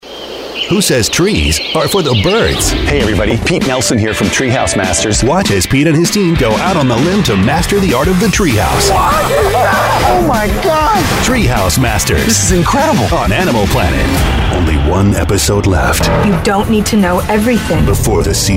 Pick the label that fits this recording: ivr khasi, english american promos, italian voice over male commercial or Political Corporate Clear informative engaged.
english american promos